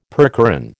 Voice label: "percheron", American

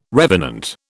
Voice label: "revenant", British

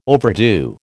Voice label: "overdo", American

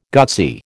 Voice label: "gutsy", American

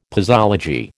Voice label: "posology", American

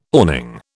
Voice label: "awning", British

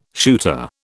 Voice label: "shooter", British

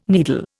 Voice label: "needle", Indian

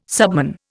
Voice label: "subman", Indian

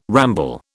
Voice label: "ramble", British

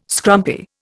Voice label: "scrumpy", Indian

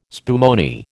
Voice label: "spumoni", American

